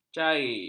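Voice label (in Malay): "cair", Malay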